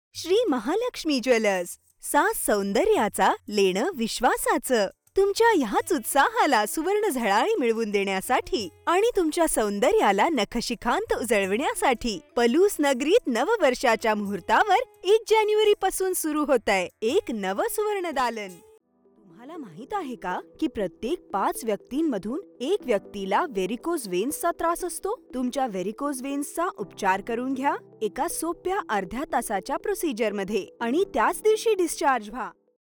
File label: Marathi Commercial